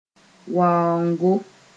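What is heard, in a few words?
"waoongo", Mooré; hunt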